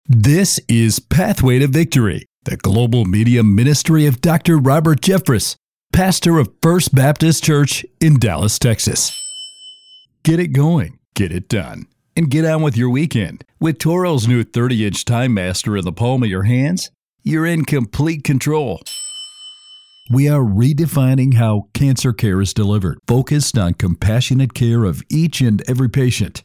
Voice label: Commercial Voice Over

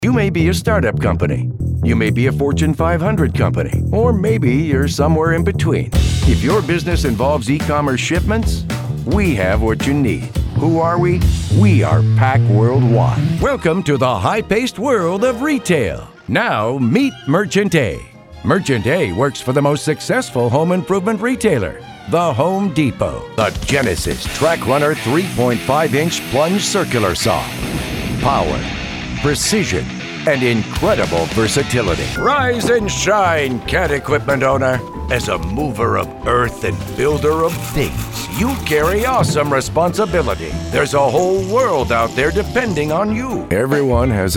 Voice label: Narrative

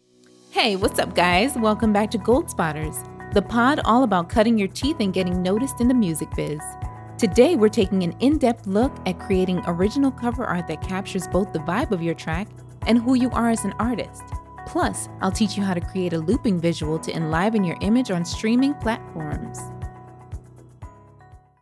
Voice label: Podcast Intro

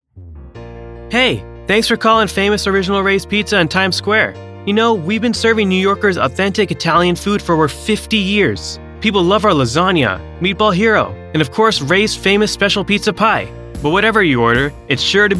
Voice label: TELEPHONY

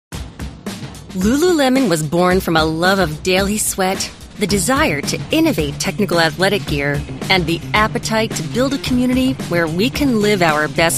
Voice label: Explainer Demo

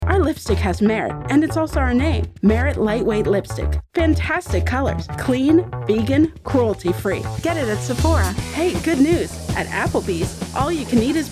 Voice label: Commercial Short

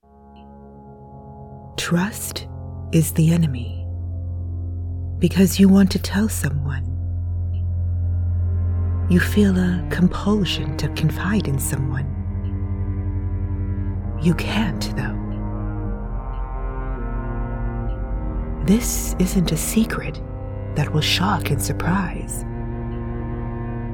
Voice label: Gaming VO With Music

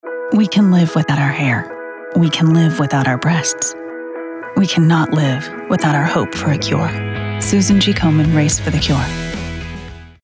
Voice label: TV Commercial Compassionate; Breast Cancer Research for Susan G Koman

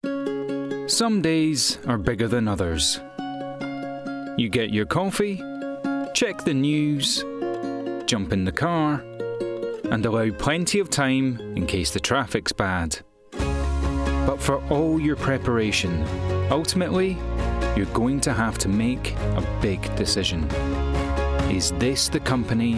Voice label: Commercial Demo

